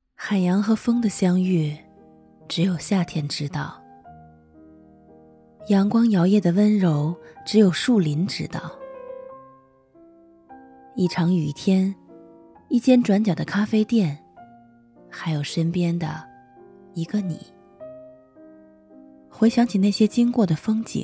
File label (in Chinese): 旁白